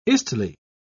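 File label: "istle", British English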